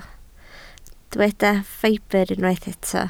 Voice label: "ffibr", Welsh